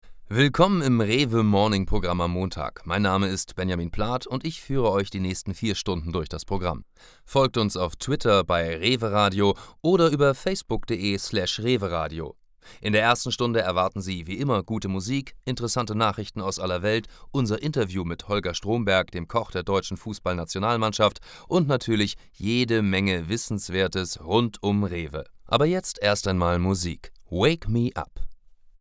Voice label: german radioshow

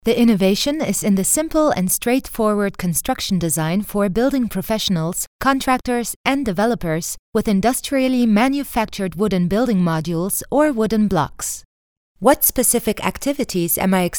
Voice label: en informative compilation

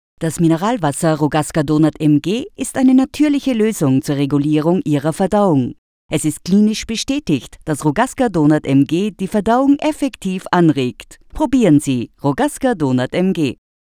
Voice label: web spot; rogaska donat mg

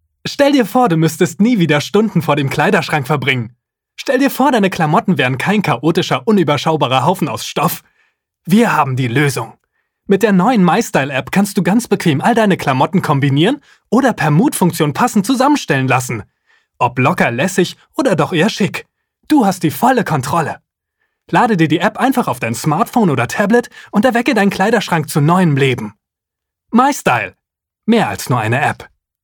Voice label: nomusic laut; mystyle

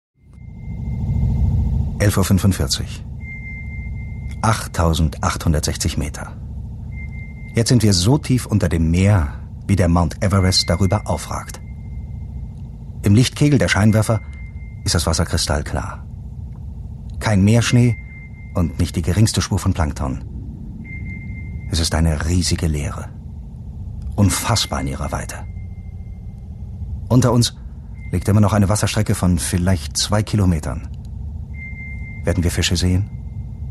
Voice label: radio

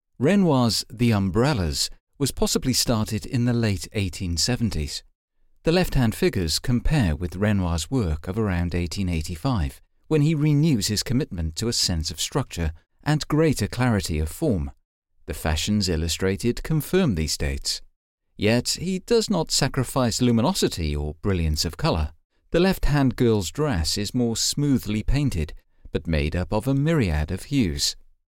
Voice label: audio tour; renoir

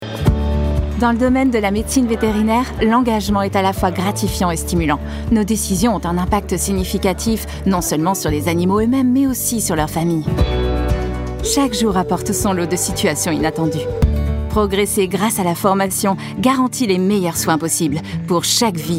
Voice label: voiceover; ecole veterinaire

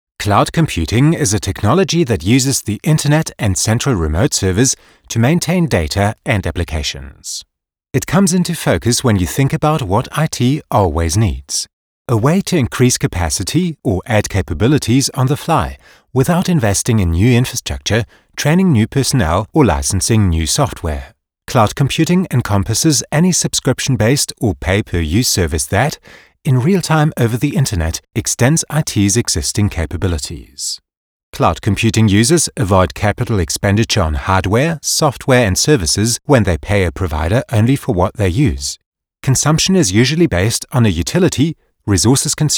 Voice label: e-learning english

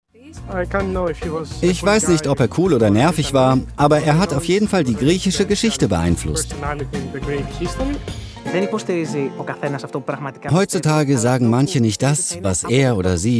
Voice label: voiceover; kika triff sokrates

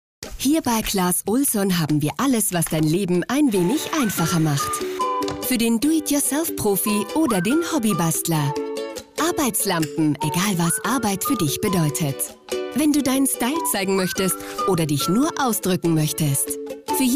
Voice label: spot; clas ohlson

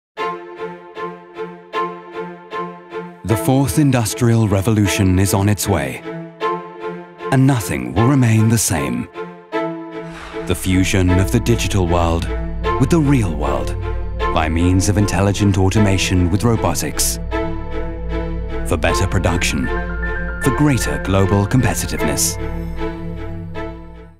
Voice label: corporate film; kuka

